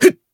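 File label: Voice attack, Japanese; claude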